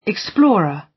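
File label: "explorer", British; Space, the planets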